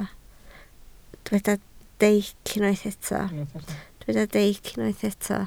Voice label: "deic", Welsh